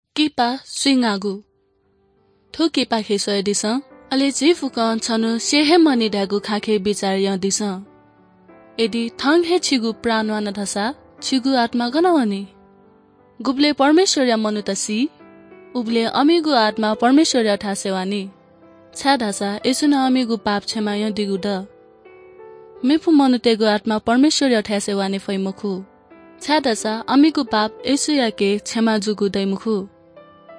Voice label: good news newari bhaktapur

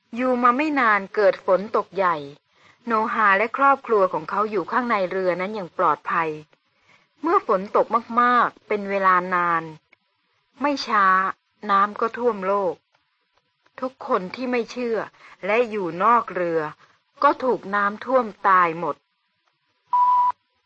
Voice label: good news short thai central

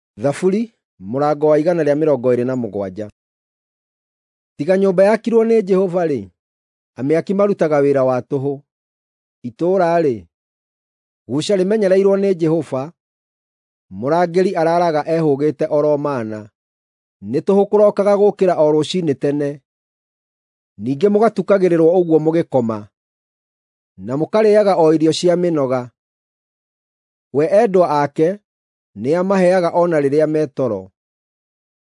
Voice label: psalms, gikuyu drama

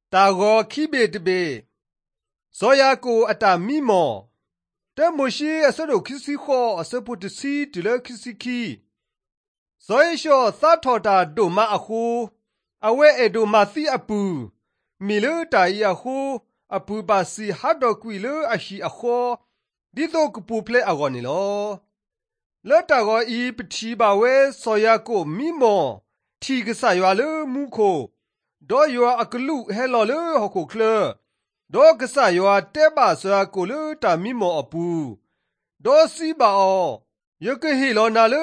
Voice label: drama, karen sgaw; mighty men of god; bible

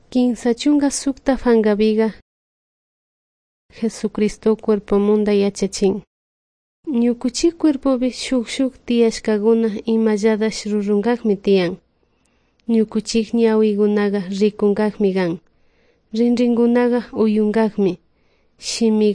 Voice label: good news quichua de salasaca

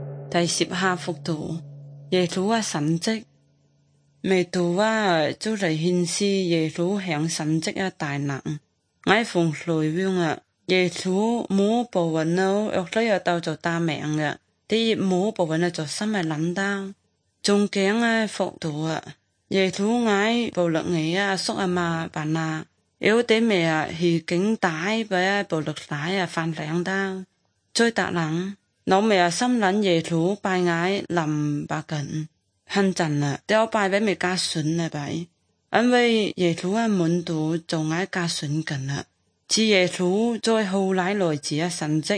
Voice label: good news zhuang guigang guiping

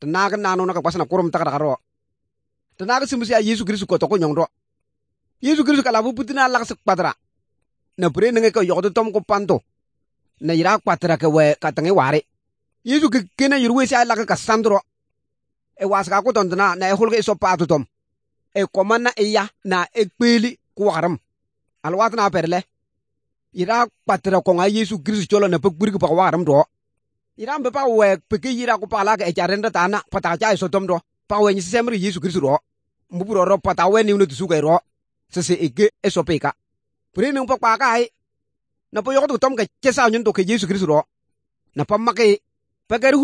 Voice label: good news, kabiye bible

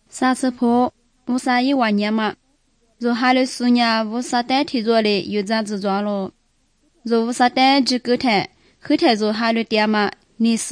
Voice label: good news lisu southern, drama

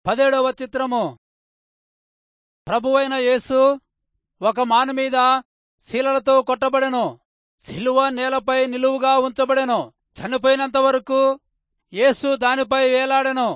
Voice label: telugu rayalseema; good news

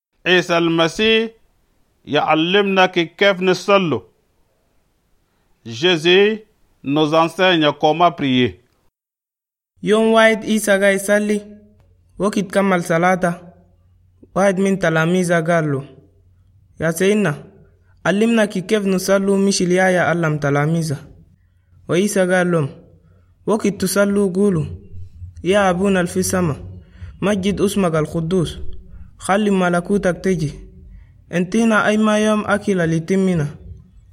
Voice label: ask and receive, chadian arabic

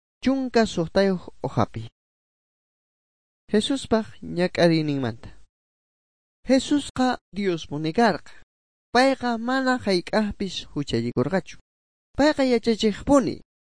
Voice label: good news quechua bolivian sucre; bible

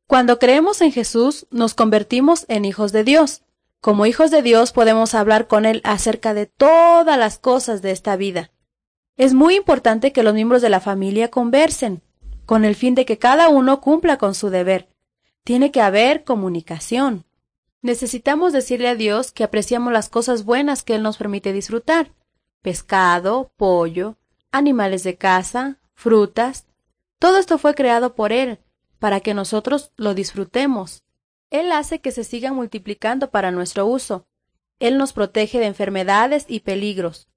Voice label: words of life spanish mexico

